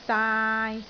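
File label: "daai3", Cantonese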